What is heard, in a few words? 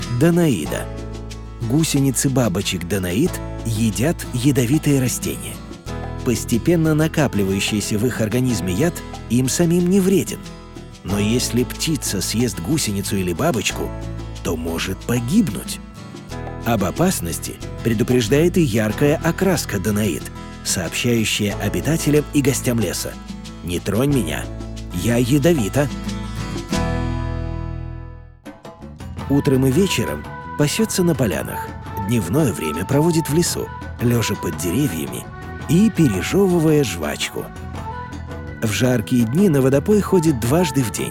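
Russian narration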